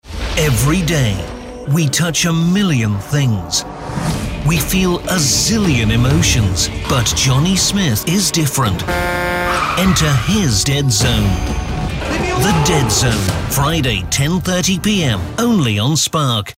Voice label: UK promo